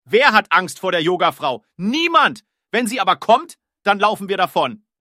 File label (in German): angst vor yoga frau